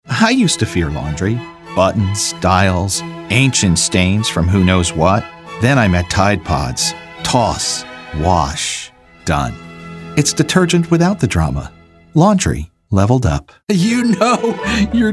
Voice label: Commercial